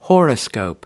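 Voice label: "horoscope", American English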